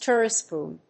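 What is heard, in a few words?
"Turbopump", English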